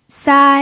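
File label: "sai" Mandarin Chinese, first tone, pinyin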